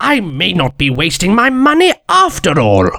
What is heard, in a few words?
positive vocalization